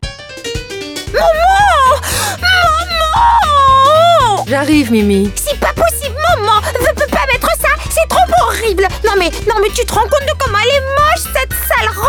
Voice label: Peste, voix off comédienne

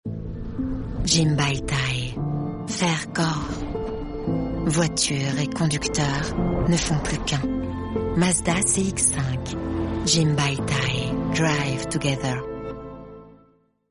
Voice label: Spot TV basse sexy confidentielle; Mazda; Pub TV

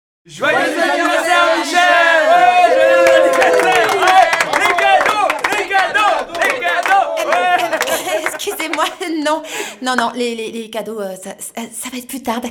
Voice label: comedie signature tonique, voix off comédienne; Darty

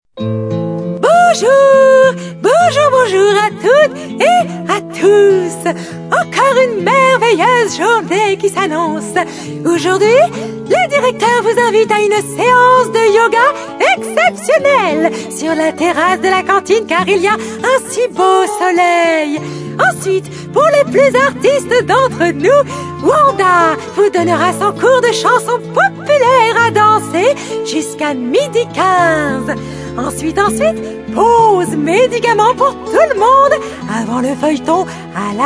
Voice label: Barree a l'Ouest, voix off comédienne; Maison Calme

